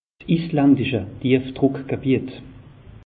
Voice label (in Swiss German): "islandische dief druck gebiet", alsace; meteo